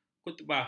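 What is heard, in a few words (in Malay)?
"khutbah", Malay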